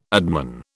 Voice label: "adman", British